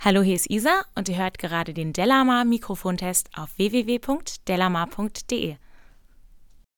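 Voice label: speech female; seelectronic se2200a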